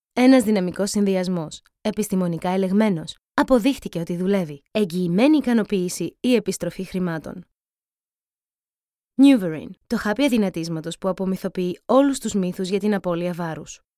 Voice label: Voice Talent Greek Voiceover